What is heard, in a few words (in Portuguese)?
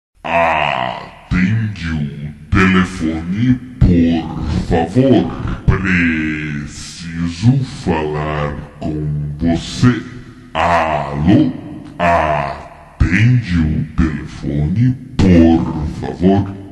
monstro atende o celular